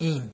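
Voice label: "im", Nigerian Pidgin, male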